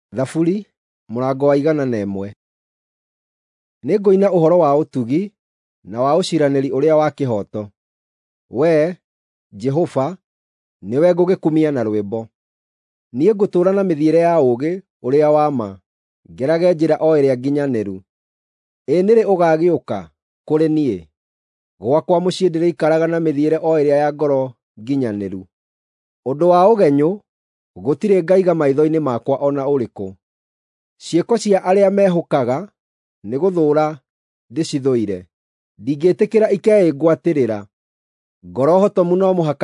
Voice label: psalms, gikuyu drama; bible

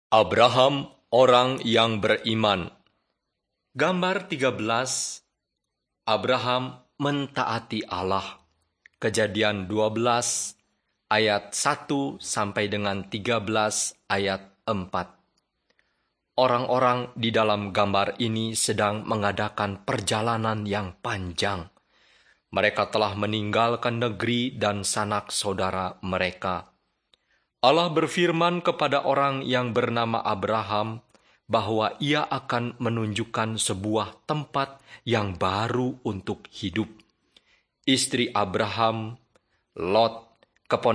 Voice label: bahasa indonesia; beginning with god

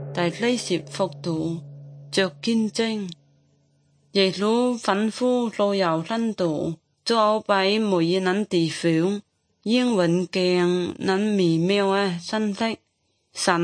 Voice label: good news zhuang guigang guiping

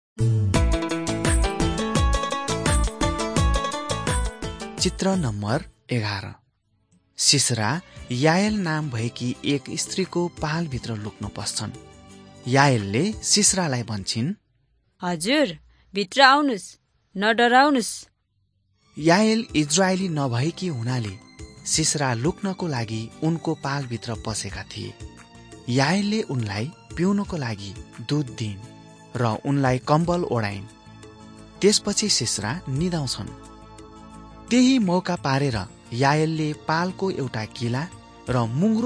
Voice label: victory through god nepali

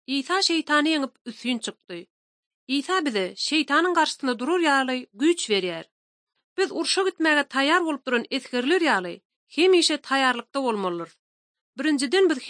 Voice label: turkmen, ot drama

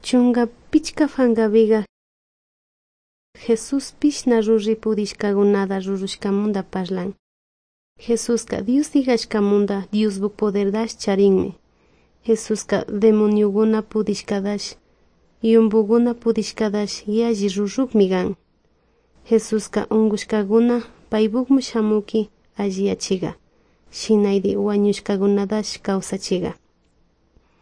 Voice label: good news quichua de salasaca

